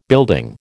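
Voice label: "building", American English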